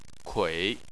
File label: "kui3", Chinese